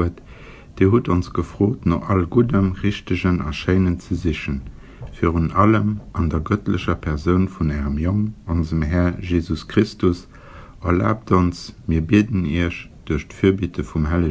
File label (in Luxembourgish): internet prayer luxembourgish